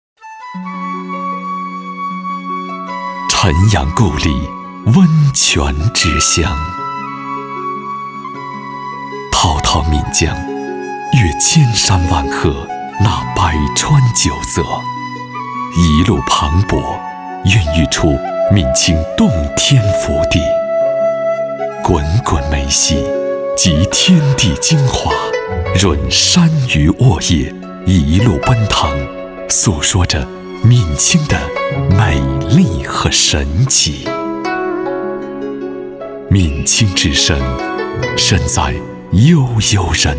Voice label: 男 专题; 闽清

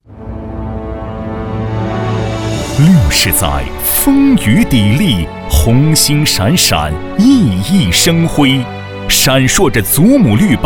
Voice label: 男,六十载回顾

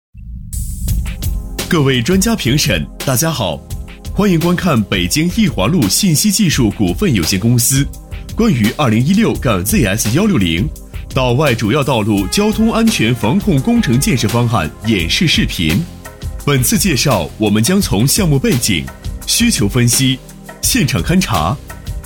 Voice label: 男 专题 多媒体汇报; 北京

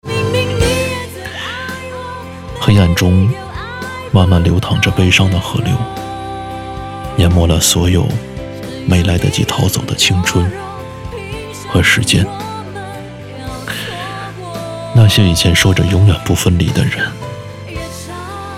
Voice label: 男 旁白; 悲伤地河流

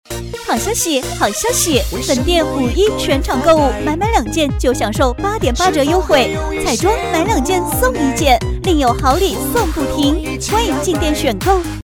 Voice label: 女 广告 好消息 (激情大气)

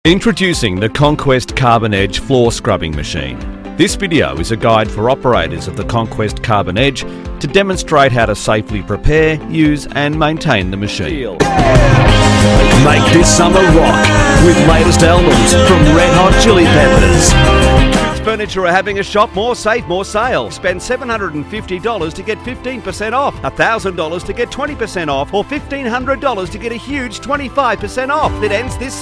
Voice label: 外籍英男-视频解说

